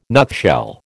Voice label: "nutshell", American

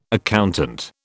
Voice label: "accountant", British

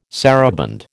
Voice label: "saraband", American

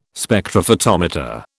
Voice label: "Spectrophotometer", British

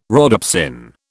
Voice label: "rhodopsin", British

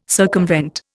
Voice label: "circumvent", Indian

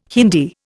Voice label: "Hindi", Indian

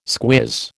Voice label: "squiz", American

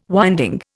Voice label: "winding", Indian

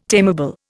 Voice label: "tameable", Indian

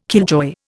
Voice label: "killjoy", Indian

